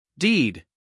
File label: "deed", US English, male; 1000 hours